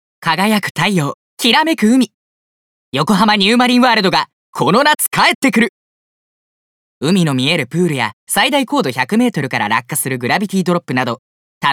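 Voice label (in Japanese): テーマパークCM, ボイスサンプル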